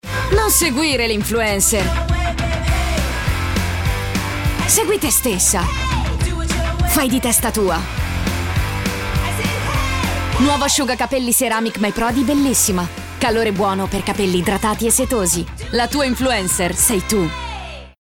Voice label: female italian comercial